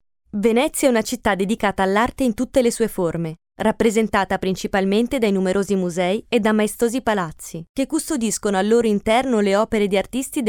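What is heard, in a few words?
Italian female Documentary; voice over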